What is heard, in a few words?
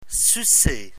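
"sucer", French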